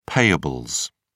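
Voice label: "payables", British English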